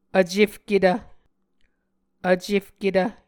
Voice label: "vcefketv", Muscogee

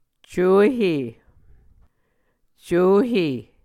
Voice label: "cuehe", Muscogee

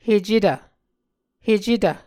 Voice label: "hecetv", Muscogee

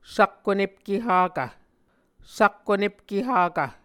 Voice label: "sakkonepke hakv", Muscogee

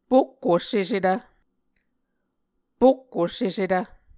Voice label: "pokko sesetv", Muscogee

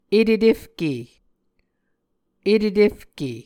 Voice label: "etetefke", Muscogee

